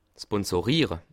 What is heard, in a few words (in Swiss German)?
"sponsoriere", alsace